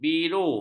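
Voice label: "biru", Malay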